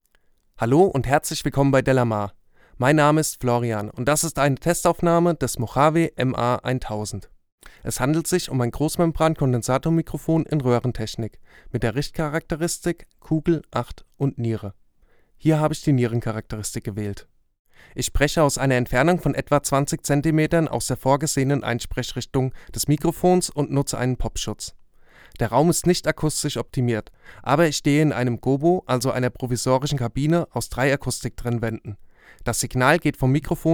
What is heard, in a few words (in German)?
MA-1000 Sprache m; mojave